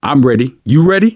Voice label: ask ready, survivor voice manager; Left 4 Dead 2; left4dead2 dlc2

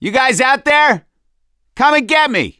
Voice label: call for rescue, survivor voice; gambler

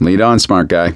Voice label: lead on, voice; gambler

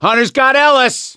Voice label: hunter pounced, voice; ellis; Left 4 Dead 2; survivor; gambler

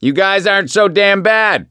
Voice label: hurrah, survivor voice; gambler

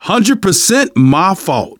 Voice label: sorry, survivor voice manager; Left 4 Dead 2; left4dead2 dlc2

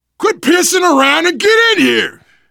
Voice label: stay together inside, voice, biker; Left 4 Dead 2; survivor

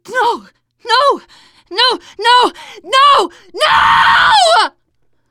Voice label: grabbed by smoker, teengirl, voice; player; survivor

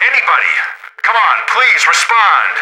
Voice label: radio initial broadcast; Left 4 Dead 2; left4dead2 dlc3; boatman; npc

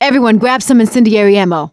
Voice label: incend ammo, voice; survivor; producer

